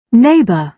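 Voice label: "neighbour", British English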